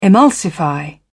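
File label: "emulsify", British English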